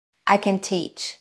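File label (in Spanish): Voice Acting es F, Spanish, female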